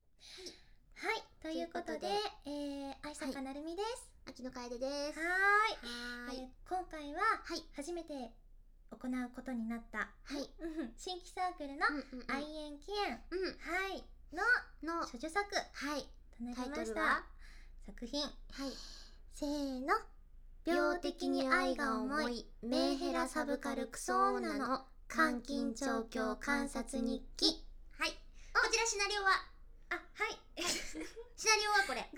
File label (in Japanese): フリートーク